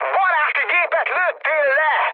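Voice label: Hungarian player killed ally mood high, speech; War Thunder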